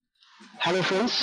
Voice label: vocals